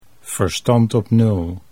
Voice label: verstand op nul, Dutch

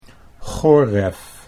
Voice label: "goref", Dutch

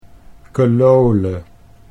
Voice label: "kelole", Dutch

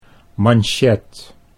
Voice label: "manchet", Dutch; sieraden

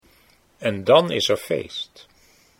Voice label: en dan is er feest, Dutch

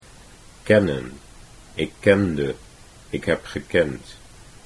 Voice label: kennen ik kende, Dutch

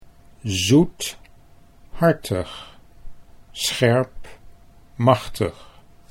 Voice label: "zoethartig", Dutch

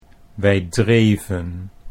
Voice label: "wij dreven", Dutch

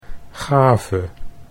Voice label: "gave", Dutch

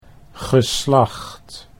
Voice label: "geslacht", Dutch

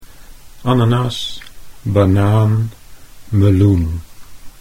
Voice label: "ananas", Dutch

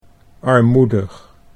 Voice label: "armoedig", Dutch

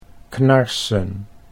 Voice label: "knarsen", Dutch

